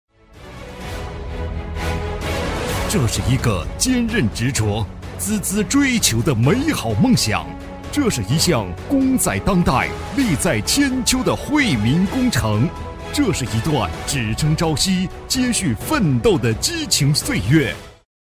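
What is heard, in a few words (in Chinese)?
男专题; 惠民工程专题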